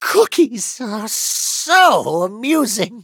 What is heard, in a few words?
defeat, en; Cookie Run